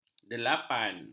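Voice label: "delapan", Malay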